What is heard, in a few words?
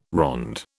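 "ronde", British English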